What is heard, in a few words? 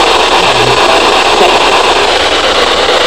Spirit Box female voice; evidence